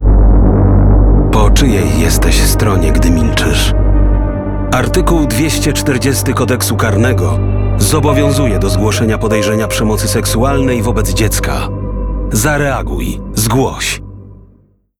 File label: RADIO